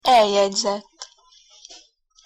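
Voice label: "eljegyzett", Hungarian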